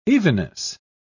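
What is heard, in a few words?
"evenness", British English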